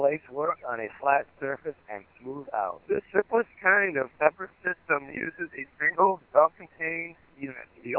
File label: Codan digital voice